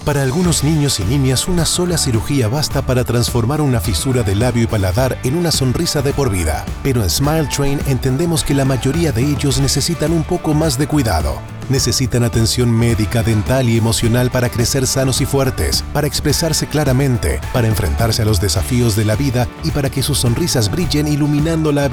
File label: RADIO SPANISH; LIFELONG SMILES